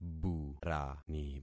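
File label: "burani", concatenated syllables; Affixing experiment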